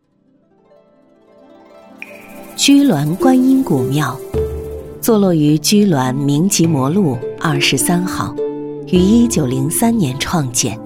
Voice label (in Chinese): 纪录片 古典温婉